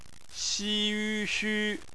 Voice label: "xu", Chinese